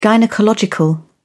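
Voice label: "gynaecological", British English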